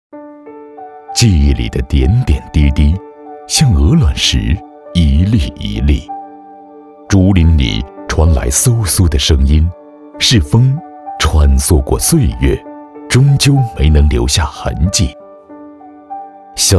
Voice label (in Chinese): 旁白抒情煽情; 上泽古村